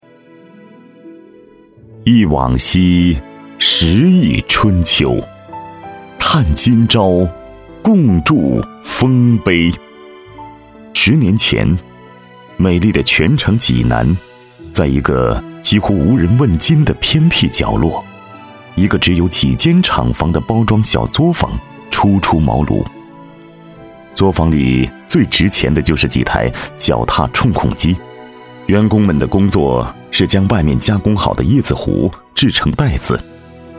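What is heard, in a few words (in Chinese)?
高端男企业宣传大气有感情; 济南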